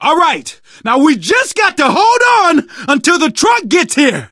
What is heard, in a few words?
radio used truck, survivor voice, manager; source engine; left 4 dead 2